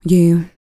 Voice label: FEM SPOKEN; ILIO; Vocal Planet VOL-4; R&B & Dance

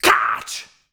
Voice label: "katsch", shouts; Best Service; Hyper Dance

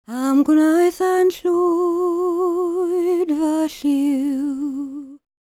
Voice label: WELSH F, World Voices

